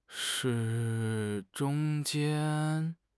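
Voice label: "zhong1 jian1", Chinese; HSK; HSK3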